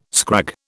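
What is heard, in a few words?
"scrag", British English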